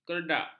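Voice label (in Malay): "kerdak", Malay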